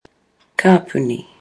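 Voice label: "kapuni", Maori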